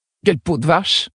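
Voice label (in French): Female Blague, voix françaises; Tauren Haut Roc; Peau Vache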